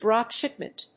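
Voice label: "Drop Shipment", English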